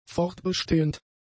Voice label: "fortbestehend", German; adjectives

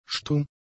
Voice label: "stumm", German; adjectives

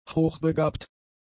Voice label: "hochbegabt", German; adjectives

